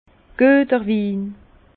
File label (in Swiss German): "gueterwin", Bas Rhin; vin; bon vin